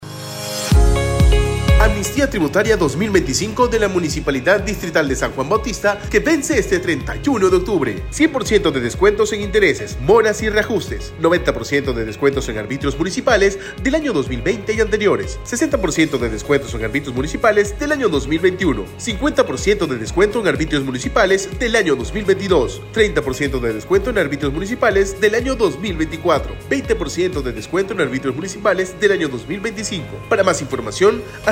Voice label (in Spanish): SPOT RADIAL; OTUBRE RENTAS 2025